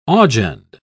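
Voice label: "augend", British English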